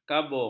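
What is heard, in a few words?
"kabung", Malay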